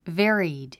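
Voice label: "varied", English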